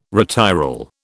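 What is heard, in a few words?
"retiral", British English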